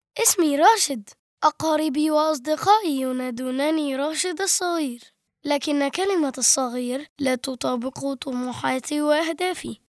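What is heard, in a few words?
Arabic child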